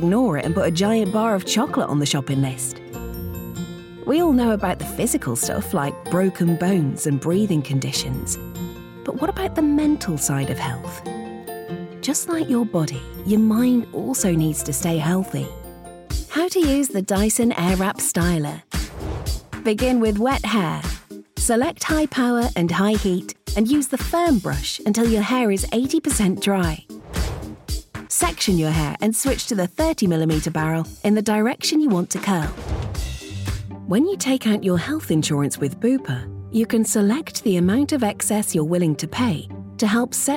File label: english british e-learning